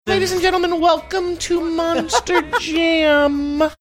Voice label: saying ladies and gentlemen welcome to monster jam high pitched